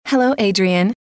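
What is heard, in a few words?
hello, female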